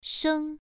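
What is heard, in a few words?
"sheng" Mandarin Chinese, first tone, pronunciation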